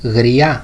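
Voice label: "gria", Greek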